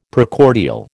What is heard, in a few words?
"Precordial", American